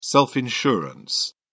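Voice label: "self-insurance", British English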